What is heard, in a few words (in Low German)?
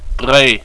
"dree", Plattdüütsch; Unser Schnellkurs in Deutsch-Plattdeutsch